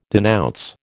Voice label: "denounce", American